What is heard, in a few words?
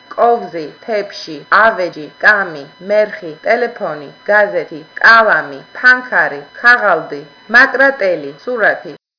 georgian